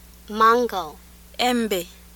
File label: Swahili e